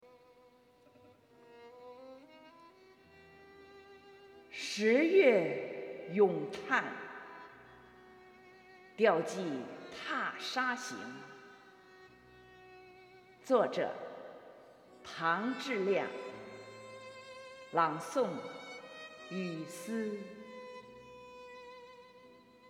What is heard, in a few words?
Recitation